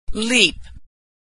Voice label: verb "leap", pronunciation